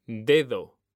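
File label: "DEDO", Spanish; mekanta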